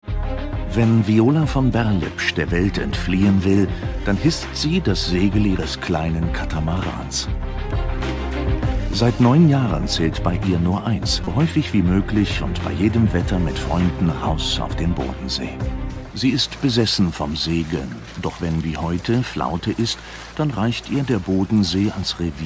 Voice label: Documentary Narrations General public, German voice-over, Captivating and Dynamic; Dream travel